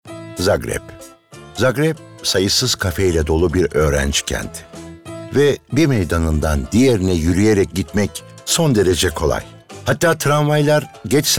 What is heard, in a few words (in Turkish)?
Belgesel